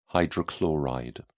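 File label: "hydrochloride", British English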